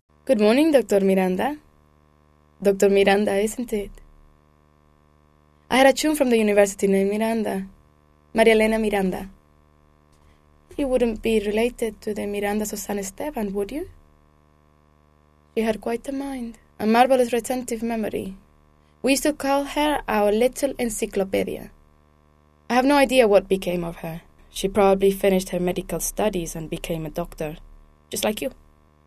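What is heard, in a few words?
Spanish, English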